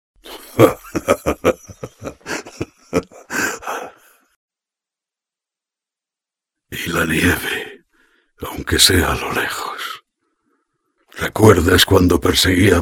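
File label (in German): Spanish, Schauspiel Charge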